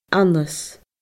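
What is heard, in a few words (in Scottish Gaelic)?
"annas", Scottish Gaelic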